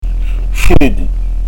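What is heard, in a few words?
"focu", Corsican; f'ogu; Son "appuyé"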